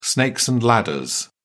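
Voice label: "snakes and ladders", British English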